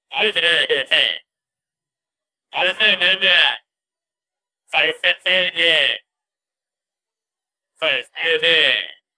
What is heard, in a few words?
a man yelling huh hey; robbery